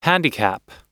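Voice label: "handicap", English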